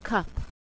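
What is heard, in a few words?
"cup", English; kitchenware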